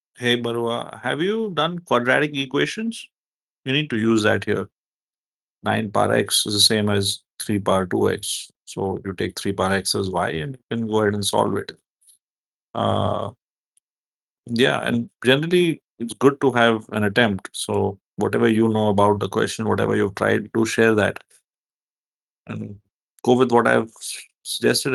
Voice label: voice message